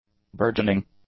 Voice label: "burgeoning", English; adjectives

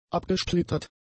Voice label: "abgesplittert", German; adjectives

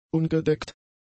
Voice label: "ungedeckt", German; adjectives

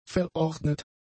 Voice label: "verordnet", German; adjectives

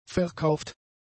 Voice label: "verkauft", German; adjectives

